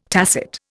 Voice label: "tacit", Indian